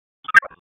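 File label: EMS Dispatch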